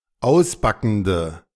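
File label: "ausbackender", German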